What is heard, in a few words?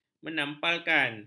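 "menampalkan", Malay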